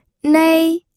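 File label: "nei", first tone, pinyin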